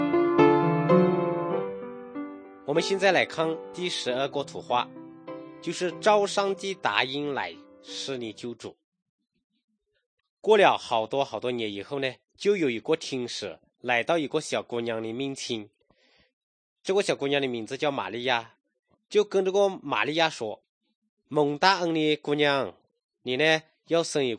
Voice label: good news shan, drama